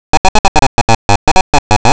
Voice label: Castellano masculino sin estudios superiores; Tortosa

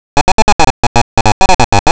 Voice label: Castellano masculino sin estudios superiores; Tortosa

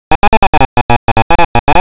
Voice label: Castellano masculino sin estudios superiores; Tortosa

